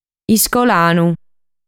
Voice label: "Iscolanu", sardu

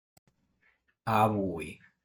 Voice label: "Abui", sardu

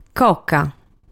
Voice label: "Coca", sardu

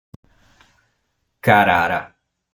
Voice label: "Carara", Sardu

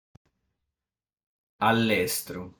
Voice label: "Allestru", sardu

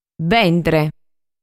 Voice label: "Bentre", Sardu

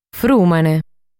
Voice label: "Frùmene", sardu

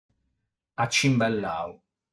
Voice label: "Acimbellau", sardu

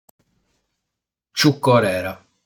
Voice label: "Ciucorera", sardu

